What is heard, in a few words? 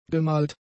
"gemalt", German; adjectives